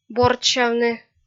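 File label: "бордсявны", Komi, female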